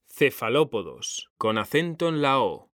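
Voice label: "CEFALÓPODOS", Spanish, male; mekanta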